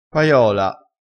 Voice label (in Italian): "paiola", crespinese